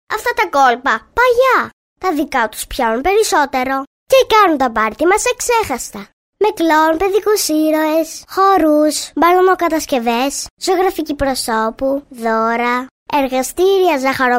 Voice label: greek child female